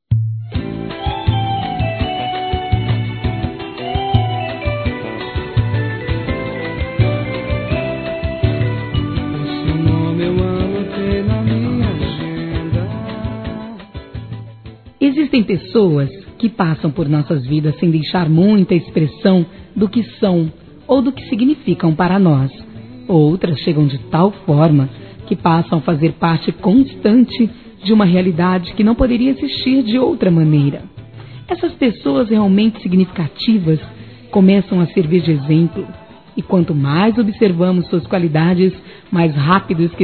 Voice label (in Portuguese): TIA, Telemensagens Aniversários; Aniv Familiar